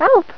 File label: "Help", Voice Female; TalkingKeys